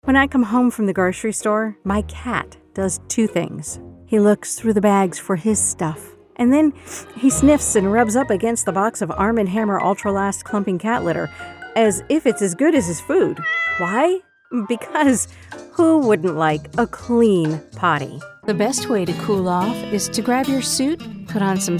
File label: Commercial